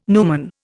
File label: "numen", Indian